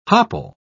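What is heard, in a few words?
"hopple", British English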